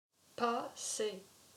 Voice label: "Passer", french; j'ai pas-sé